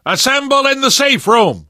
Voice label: stay together inside, left4dead survivor voice namvet